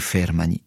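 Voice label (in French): "fermani", Corsican, Rocca (sud); Corse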